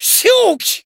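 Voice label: Voice variable chant Japanese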